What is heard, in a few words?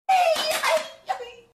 Excited